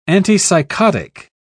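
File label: "antipsychotic", British English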